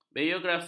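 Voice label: "biografi", Malay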